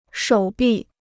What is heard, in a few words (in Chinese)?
"手臂", Chinese